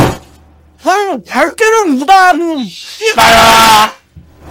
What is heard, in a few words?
scout negative vocalization